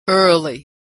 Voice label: "early", pronunciation